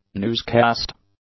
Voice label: "newscast", English; nouns